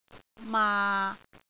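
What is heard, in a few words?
"maa6", Cantonese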